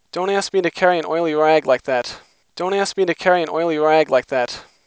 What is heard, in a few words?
Clean Dry Speech